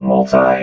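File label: "multi", robot voice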